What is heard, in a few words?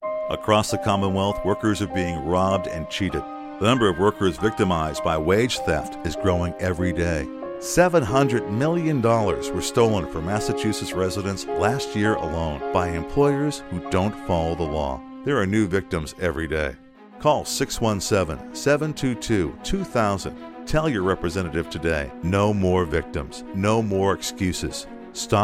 radio ad